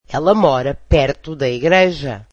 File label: portuguese she lives near the church